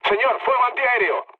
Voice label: damaged aa, mood low, speech; War Thunder

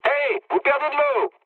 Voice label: damaged water mood low, speech fr; War Thunder

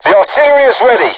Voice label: voice message art charged, crew tanks vietnamese

